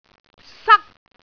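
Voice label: "sak1", Cantonese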